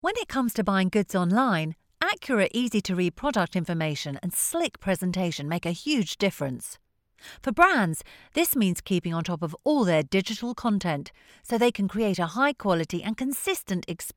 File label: Talkative